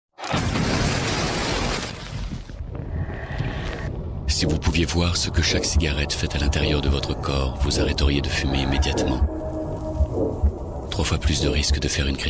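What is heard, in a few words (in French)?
PUB Anti tabac, voix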